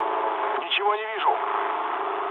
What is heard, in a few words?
searchlite, mood low, speech ru; War Thunder